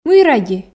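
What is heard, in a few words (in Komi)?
"мый ради", Komi, female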